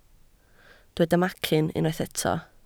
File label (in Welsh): "macyn", Welsh